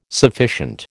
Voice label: "sufficient", American